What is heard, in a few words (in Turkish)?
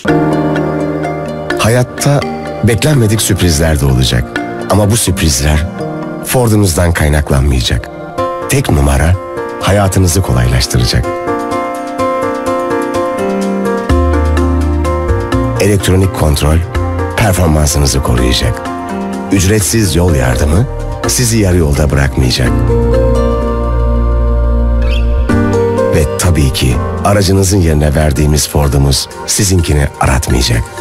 duygusal motive